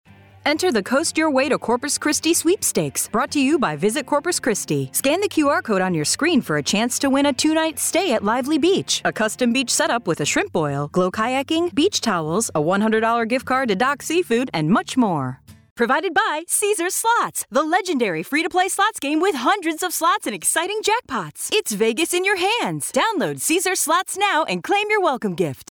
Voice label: english american promos; locutora profesional y nativa de Chicago